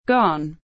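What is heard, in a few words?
"Gone", English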